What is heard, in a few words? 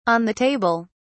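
"on the table", English, British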